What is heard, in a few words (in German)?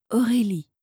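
"Aurelie", französische Mädchennamen, Aussprache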